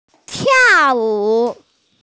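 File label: "tiao wu" (dance), Mandarin Chinese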